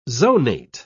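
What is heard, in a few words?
"zonate", British English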